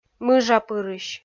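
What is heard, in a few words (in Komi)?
"мыжапырысь", Komi, female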